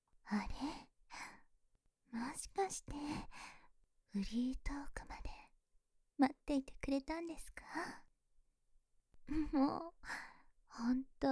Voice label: フリートーク

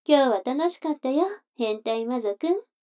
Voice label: 女の子 台詞

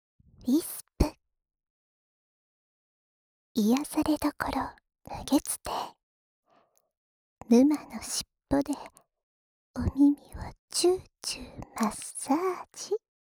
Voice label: タイトルコール

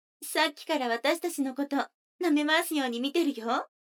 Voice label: 女の子 台詞

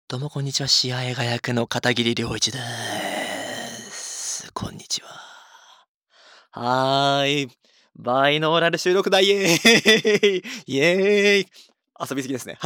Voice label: フリートーク

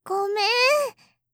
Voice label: ごめ～ん, 謝罪

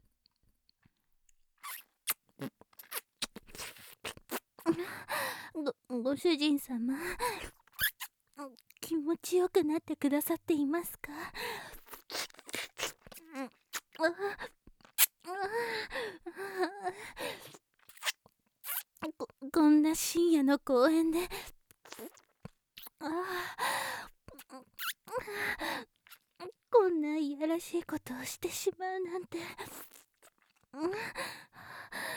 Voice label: メイドのささやき

